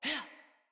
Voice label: Jump Female, Battle Barks; Envoy